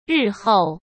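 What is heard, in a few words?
"日后", Mandarin Chinese; chinese-flashcards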